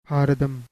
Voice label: malayalam; india